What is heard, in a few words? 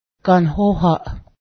door, cayuga